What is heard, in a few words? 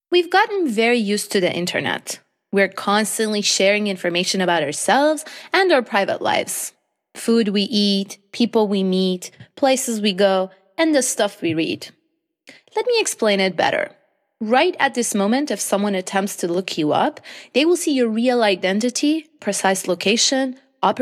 English; animation; voice overs